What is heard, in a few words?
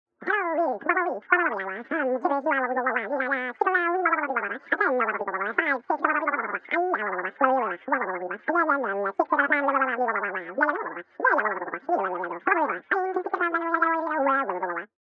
Cartoon Nonsense Chipmunk Telephone Voice Gibberish; Omnibit Sound